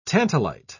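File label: "tantalite", British English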